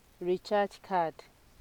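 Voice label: "recharge card", Nigerian Pidgin, female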